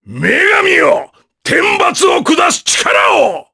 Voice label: Vox Skill, jp; Ricardo; kingsraid